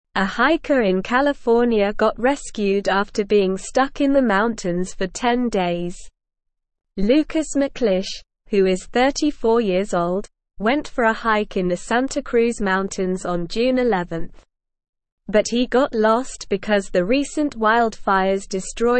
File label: English SLOW Reading; Hiker Rescued After 10 Days Stranded in Mountains